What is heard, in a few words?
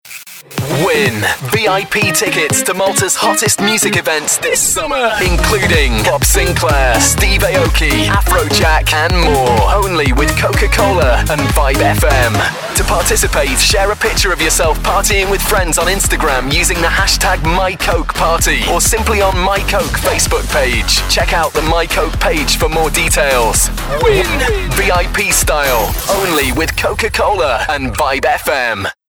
radio promotion; Coca-Cola